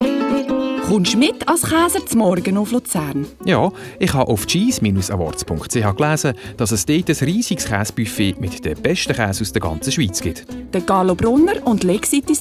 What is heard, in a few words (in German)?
Radiospot; Swiss Cheese Award